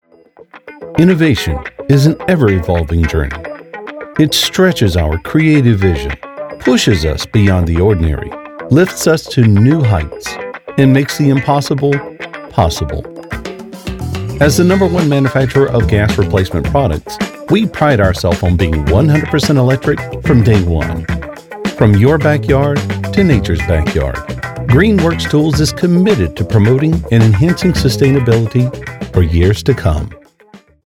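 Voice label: US phone voice, male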